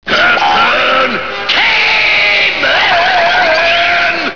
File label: CAVEMAN